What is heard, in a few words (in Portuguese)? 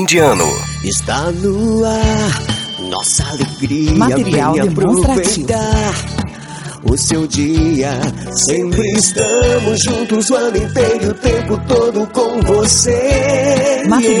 MENSAGEM DE NATAL E FIM DE ANO locutor publicitario vinheta; banco de voz off jingle